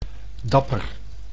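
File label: "dapper", Dutch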